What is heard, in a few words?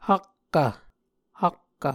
"hakkv", Muscogee